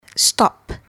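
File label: "stop", English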